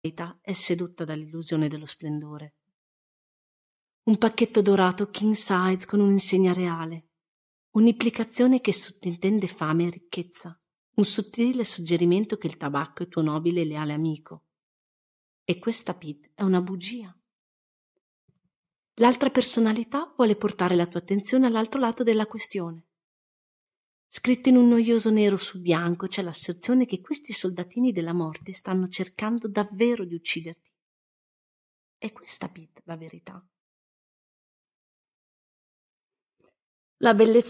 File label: Italian